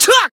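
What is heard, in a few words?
attack, Japanese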